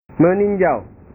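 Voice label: "meninjau", Indonesian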